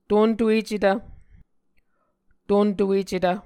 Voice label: "tontuecetv", Muscogee

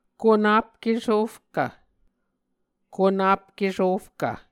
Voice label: "konap kesofkv", Muscogee

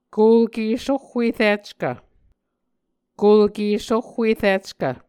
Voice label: "kulke sohhuerickv", Muscogee; Mvskoke Words with Repeated Consonants; Onvkv Ohhvyayices; A Dictionary of Creek/Muskogee; SIL International

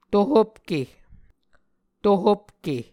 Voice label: "tohopke", Muscogee

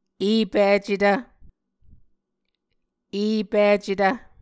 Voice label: "epicetv", Muscogee

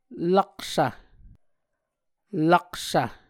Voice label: "laksv hoof", Muscogee

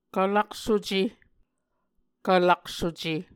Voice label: "kvlaksuce", Muscogee

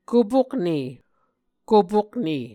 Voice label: "kopokne", Muscogee

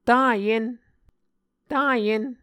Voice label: "taNyen", Muscogee, nasalized vowels

